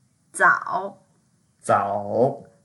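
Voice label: "zao3", Mandarin Chinese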